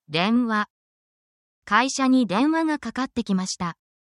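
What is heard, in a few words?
Japanese word, japanese phrases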